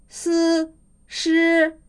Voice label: apical vowels, mandarin